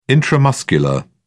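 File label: "intramuscular", English, uk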